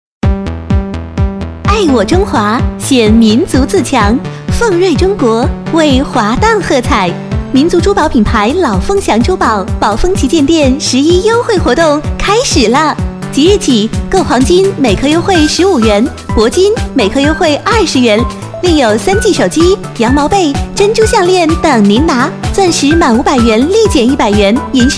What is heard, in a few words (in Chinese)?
广告 促销 珠宝促销广告